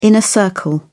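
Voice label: "inner circle", British English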